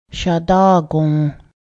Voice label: body in your, Cayuga; syadá:gǫ

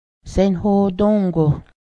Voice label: open, Cayuga

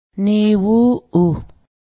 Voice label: little small, Cayuga; niwuˀuh

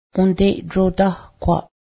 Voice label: diaper, Cayuga; ǫdeˀdrodáhkwaˀ

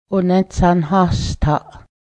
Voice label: bracelet, Cayuga; ǫnętsanháhstaˀ

